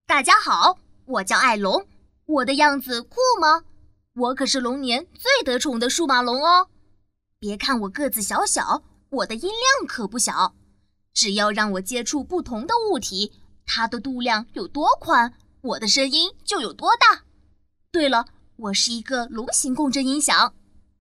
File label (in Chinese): 童声男声; 数码龙; 儿歌